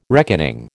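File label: "reckoning", American English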